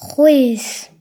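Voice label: "sweat", Breton; gcompris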